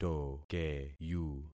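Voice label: "tokeyu", concatenated syllables; Affixing experiment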